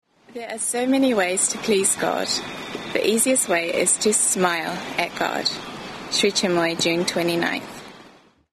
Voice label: poem